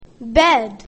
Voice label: "bed", English; grade1; basic sounds 1